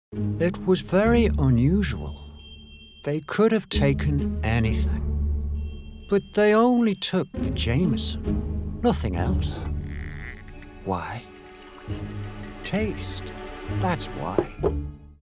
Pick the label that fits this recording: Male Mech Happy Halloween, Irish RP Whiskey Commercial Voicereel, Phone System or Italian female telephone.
Irish RP Whiskey Commercial Voicereel